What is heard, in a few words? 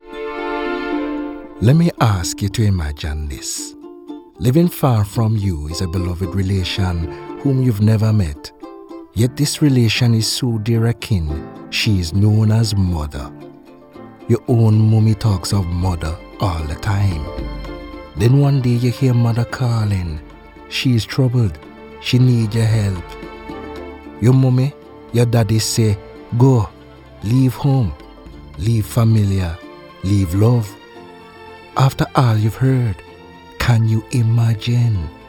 english caribbean narration